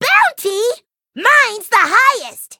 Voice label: eng menu idle; chili pepper; cookie run